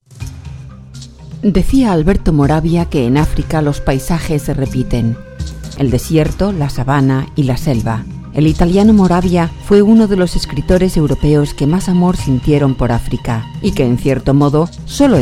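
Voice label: Castilian, foreign accent voiceovers; foreign languages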